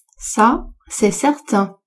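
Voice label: "à c'est certain", female, French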